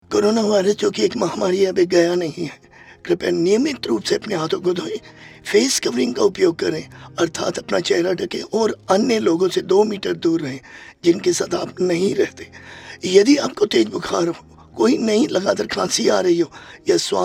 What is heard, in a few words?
Hindi